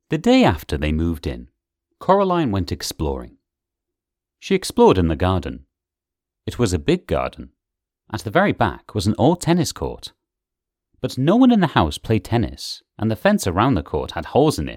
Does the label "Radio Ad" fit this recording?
No